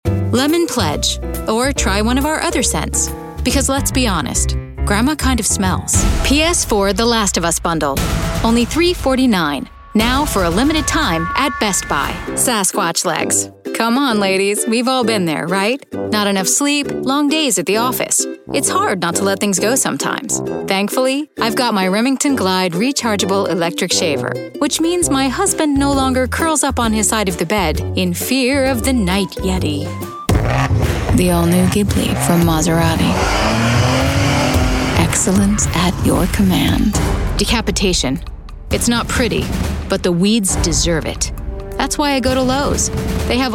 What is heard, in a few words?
Commercial